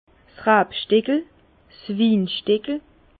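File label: "rabstickel", Alsatian, Bas Rhin, parcelle; vin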